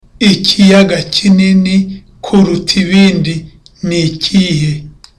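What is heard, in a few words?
Kinyarwanda, Softly; Ikiyaga kinini kuruta ibindi ni ikihe?; Which Lake is larger than the others?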